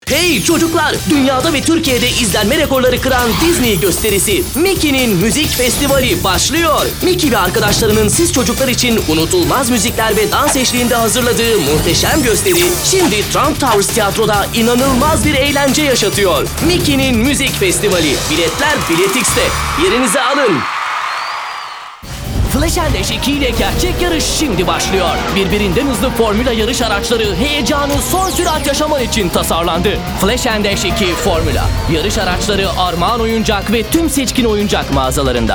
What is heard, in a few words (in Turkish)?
kampanya tempolu